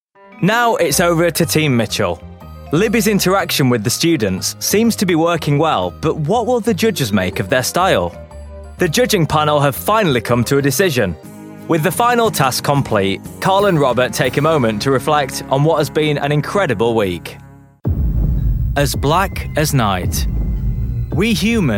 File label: narration